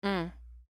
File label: Emote Positive